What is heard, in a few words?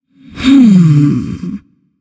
nv thinking; smite; nemesis